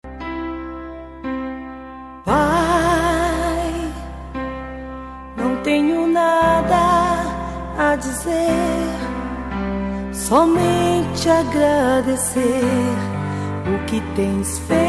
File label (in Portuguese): homenagem pai fem; Homenagem para Pai - Voz Feminina